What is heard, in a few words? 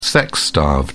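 "sex starved", British English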